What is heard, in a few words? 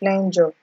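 "flenjo", Nigerian Pidgin, female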